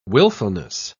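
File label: "wilfulness", British English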